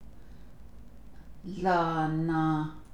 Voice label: optative particle expressing a positive wish, navajo; hop